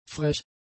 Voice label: "frech", German; adjectives